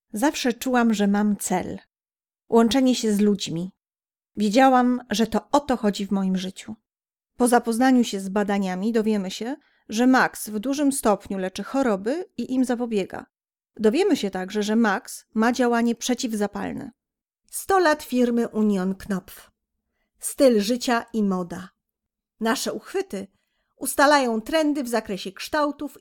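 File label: Polish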